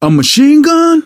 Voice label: take submachine gun, survivor voice, manager

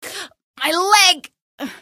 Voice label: my leg, female; Source Engine; video game; npc

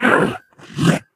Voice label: angry; Portal 2; Source Engine; video games; ballbot

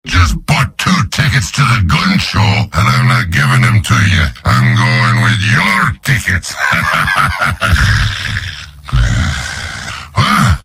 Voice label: demoman gibberish; mvm

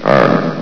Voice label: "arm", vox; Source Engine; bshift

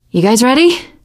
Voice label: ask ready, survivor voice teen girl

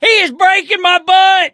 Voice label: charger running with player, survivor voice; source engine; left 4 dead 2

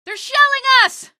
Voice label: shelling us, female; hl2; canals; Source engine

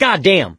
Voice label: reaction negative, survivor voice mechanic; source engine; left 4 dead 2

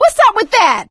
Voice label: taunt, survivor voice; source engine; left 4 dead 2; producer

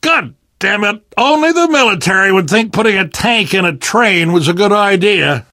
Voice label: tank in train yard, survivor voice; Left 4 Dead 2; Source Engine; video games; namvet

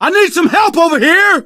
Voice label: help, survivor voice, manager

